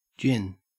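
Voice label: "gin", Australian English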